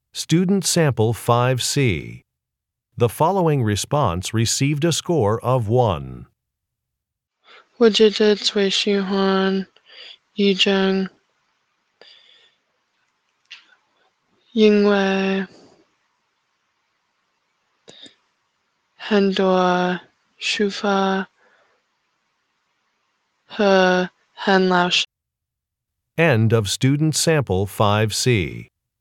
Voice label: chinese conversation

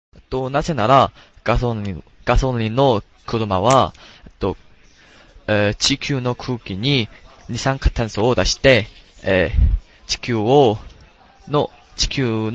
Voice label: japanese sample conversation

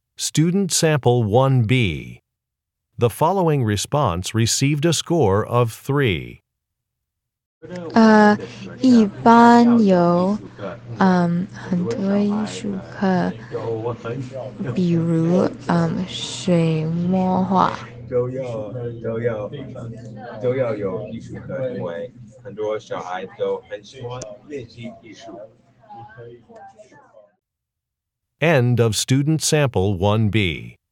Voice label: chinese conversation; Interpersonal Speaking Samples

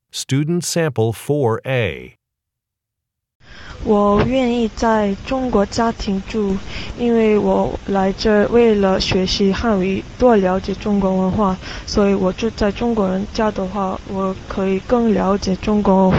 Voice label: chinese conversation

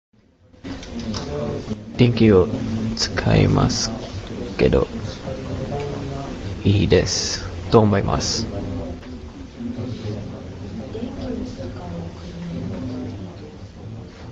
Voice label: japanese sample conversation

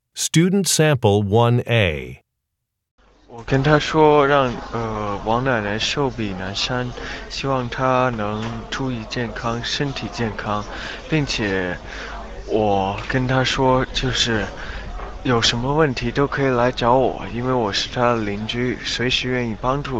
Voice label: chinese conversation

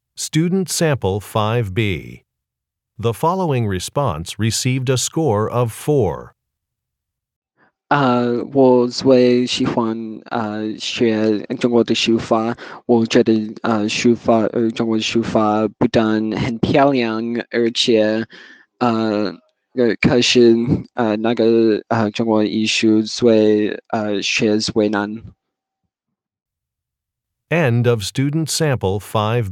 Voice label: chinese conversation

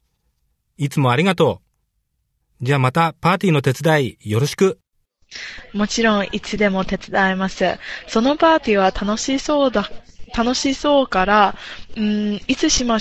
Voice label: japanese conversation; Conversation 4 Sample A (Score of 6)

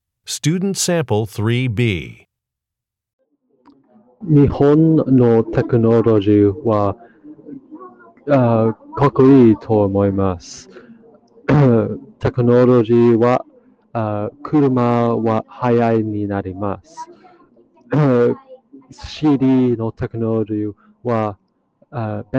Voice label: Japanese conversation; Conversation 3 Sample B

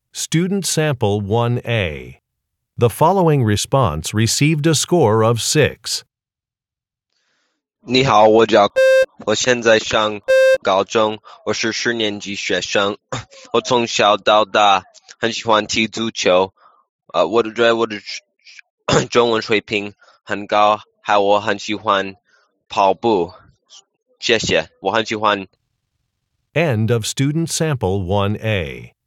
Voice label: chinese conversation; Interpersonal Speaking Samples; Conversation 1 Sample A

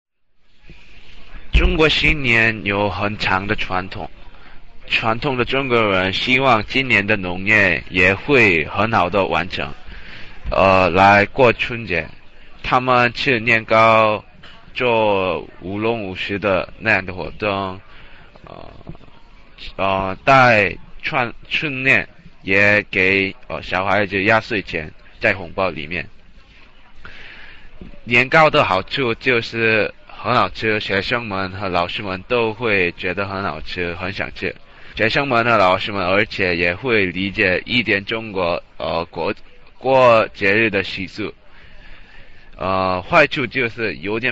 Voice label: Chinese; Event Plan SampleB Score 4

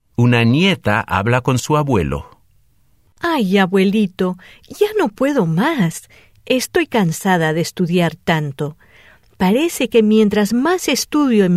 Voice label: spanish dialogue

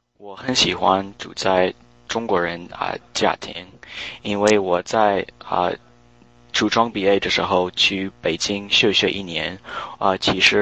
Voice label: chinese conversation

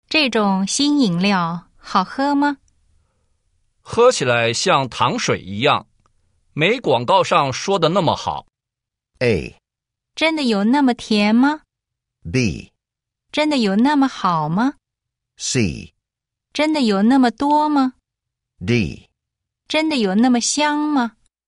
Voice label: chinese listen

